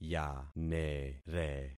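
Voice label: "yanere", concatenated syllables; Affixing experiment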